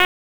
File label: Raleigh Police Northeast District Tac, p25; wake